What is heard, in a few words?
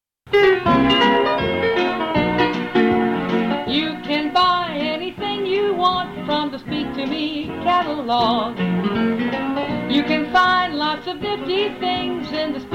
Web Beep Commercial